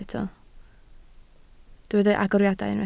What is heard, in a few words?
"agoriadau", Welsh